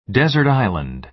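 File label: "desert island", American English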